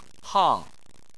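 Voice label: "hang", Chinese, fourth tone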